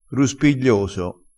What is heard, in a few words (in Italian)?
"ruspiglioso", crespinese